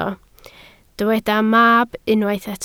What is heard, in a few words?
"mab", Welsh